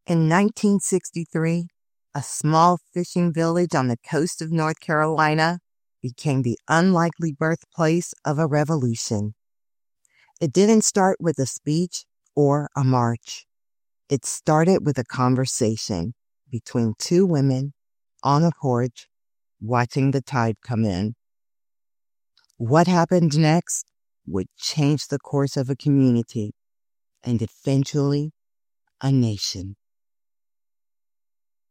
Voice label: LONG FORM NARRATION